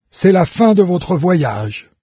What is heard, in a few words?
journey complete, fr, NARRATOR; Fate